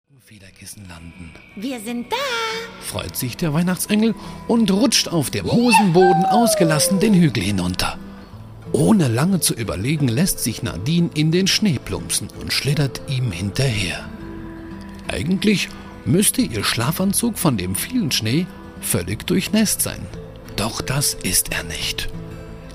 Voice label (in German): hoerspiel